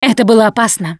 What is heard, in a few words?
transition close, russian, Survivor Voice Producer